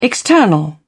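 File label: "external", British English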